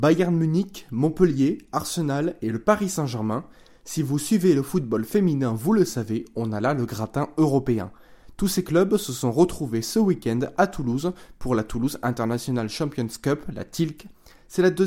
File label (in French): reportage radio